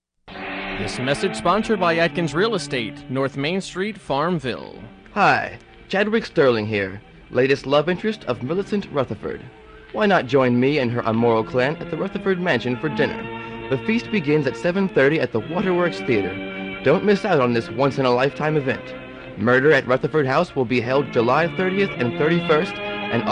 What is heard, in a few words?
radio spot; rutherford